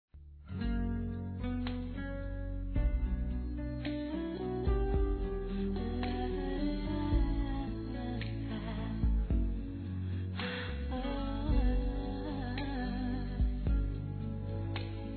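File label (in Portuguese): BODAS PRATA PARA ESPOSO, Telemensagens, Fem; Aniversários; Aniv Familiar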